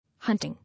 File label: "Hunting", English